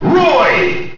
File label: Announcer; Roy; SSBM